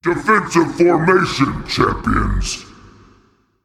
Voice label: Male Titan Trigger